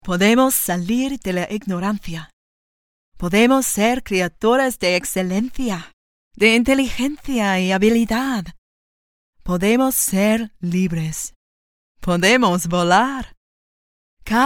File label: Spanish; LO PUEDO